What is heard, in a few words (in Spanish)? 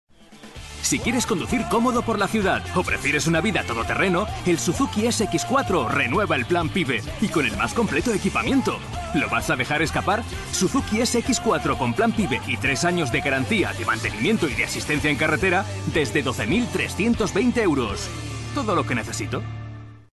Spot Español; suzuki